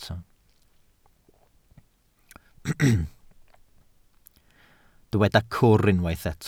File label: "cwr", Welsh